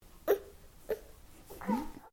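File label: MALE 2 year old UNHAPPY; Talking Sound Effects; Talking (Sound Effects:Voice)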